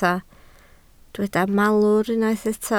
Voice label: "malwr", Welsh

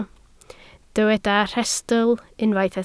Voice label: "rhestl", Welsh

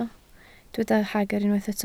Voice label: "hagr", Welsh

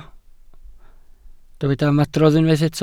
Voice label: "ymadrodd", Welsh

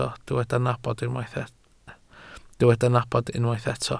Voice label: "nabod", Welsh

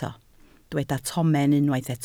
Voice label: "tomen", Welsh

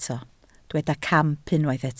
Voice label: "camp", Welsh

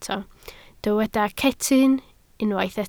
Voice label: "cetyn", Welsh

